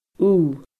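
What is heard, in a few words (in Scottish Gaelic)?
"thu", Scottish Gaelic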